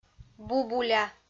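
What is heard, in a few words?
"бубуля", Komi, female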